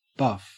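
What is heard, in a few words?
"buff", Australian English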